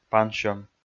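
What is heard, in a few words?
"пансьӧм", Komi, male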